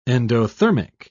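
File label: "endothermic", British English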